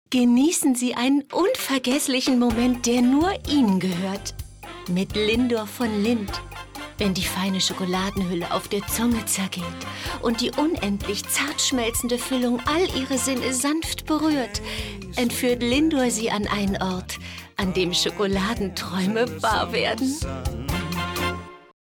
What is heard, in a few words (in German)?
sprachproben spritzig